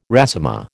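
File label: "résumé", American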